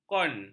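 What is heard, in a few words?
"kon", Malay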